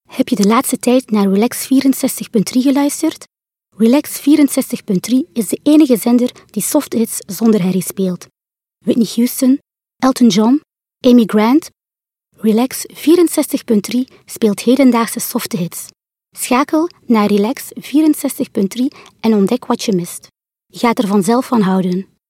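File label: Dutch, Commercial; GoLocalise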